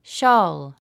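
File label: "shawl", English word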